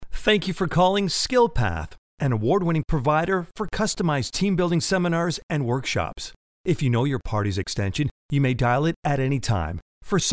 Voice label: IVR Script